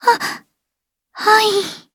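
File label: story, Japanese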